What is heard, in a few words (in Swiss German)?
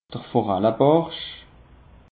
"forallebarsch", alsace; peche